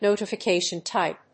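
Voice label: "notification-type", English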